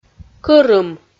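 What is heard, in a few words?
"кӧрым" Komi, female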